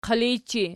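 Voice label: "qilijchi" nominative, Archi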